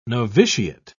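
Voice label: "novitiate", British English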